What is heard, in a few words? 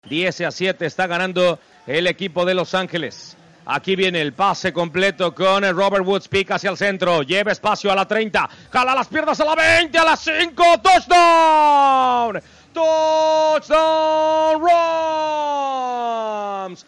Spanish